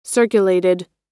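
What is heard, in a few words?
"circulated", American English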